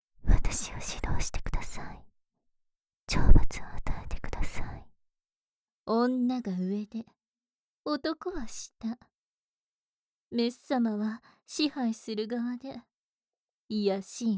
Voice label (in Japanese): 耳元射精煽り R (右耳); 会長